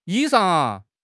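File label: "衣裳", Mandarin Chinese; HSK6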